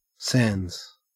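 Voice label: "sans", Australian English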